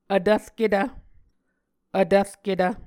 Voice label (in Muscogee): "vtvrketv", Muscogee